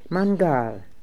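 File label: "Mangaal", pronunciations; Fourth Way; Beelzebub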